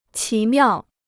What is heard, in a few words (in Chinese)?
"奇妙", Chinese